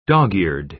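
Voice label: "dog-eared", American English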